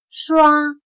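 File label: "刷" Chinese, Shuā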